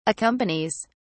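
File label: "accompanies", British English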